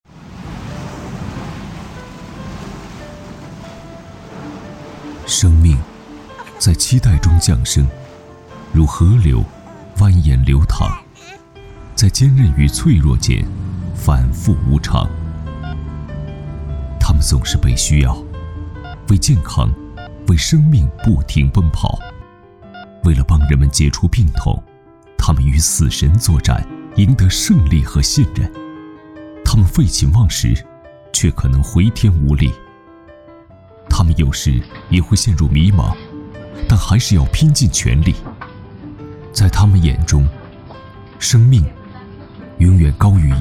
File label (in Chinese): 男 独白类 雅致自然 公益片; 我是医生